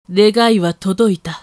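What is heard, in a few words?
female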